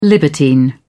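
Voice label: "libertine", British English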